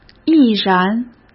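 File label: "毅然", Mandarin Chinese; hsk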